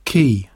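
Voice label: "quay", English; poitiers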